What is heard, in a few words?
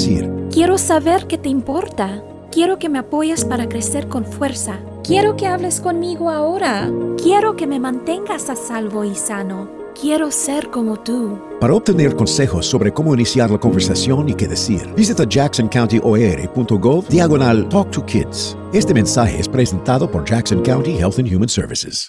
Alcohol Campaign Radio SPANISH; ADPEP